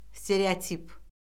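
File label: "stereotip", Azerbaijani; Orfoqrafiya Lüğəti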